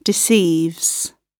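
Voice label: "deceives", British English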